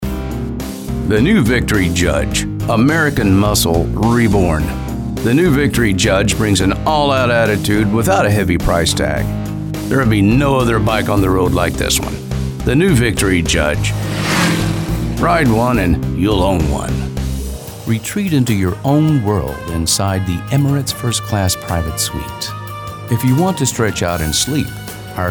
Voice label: Commercials